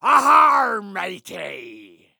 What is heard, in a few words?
dude pirate